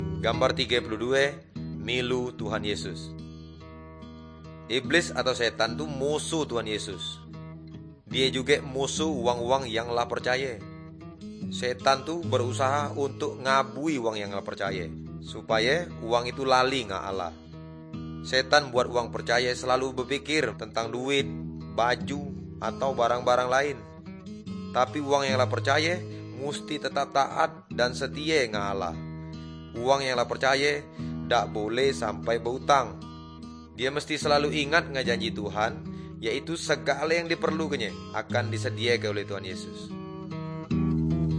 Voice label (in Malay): good news melayu tanjung raja